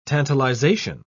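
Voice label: "tantalization", British English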